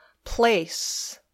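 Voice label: "place", English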